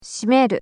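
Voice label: "shimeru", Japanese; nakama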